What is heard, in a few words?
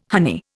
"honey", Indian